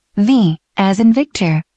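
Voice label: "Victor", spoken; alpha tango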